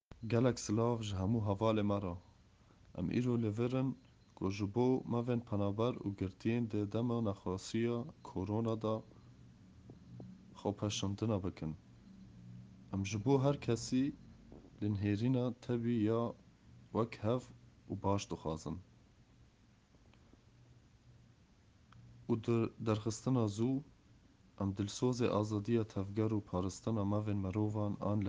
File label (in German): kurdisch